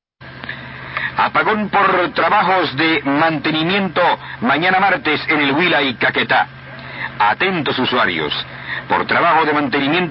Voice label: Neiva 5010 kHz, Colombia; R Surcolombiana